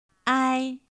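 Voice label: "āi", Chinese; pinyin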